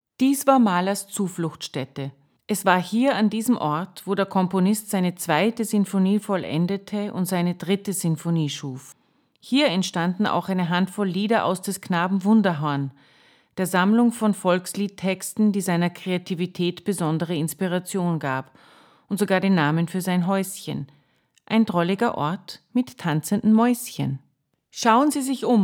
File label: audioguide, de; Gustav Mahler